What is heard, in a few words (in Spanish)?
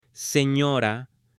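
"señora", Spanish, female; AppLenguaje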